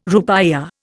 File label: "rupiah", Indian